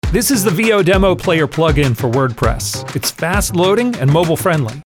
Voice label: voice over